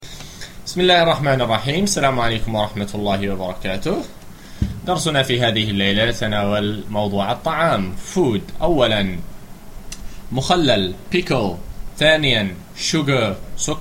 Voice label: arabic lesson food